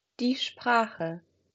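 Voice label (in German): "Sprache", German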